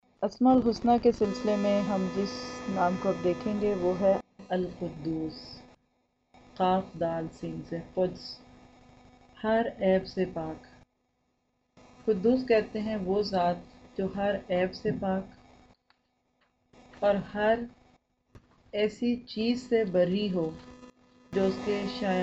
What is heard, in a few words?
Al Quddus mic noise in background; Asma-Ul-Husna